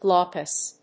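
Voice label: "glaucous", English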